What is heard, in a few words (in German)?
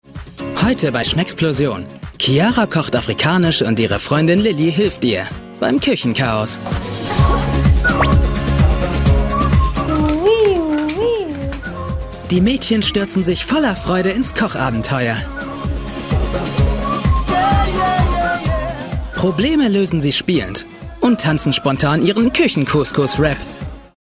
Kommentar Freundlich